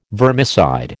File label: "vermicide", American